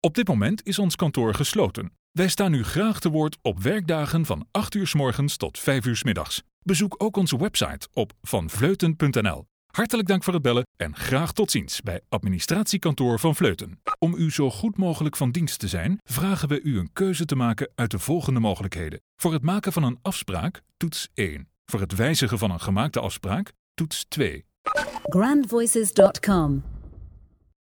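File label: Dutch male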